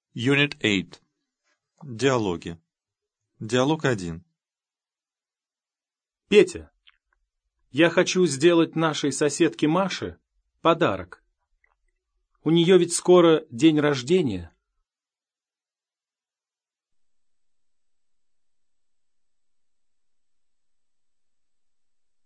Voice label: dialog, russian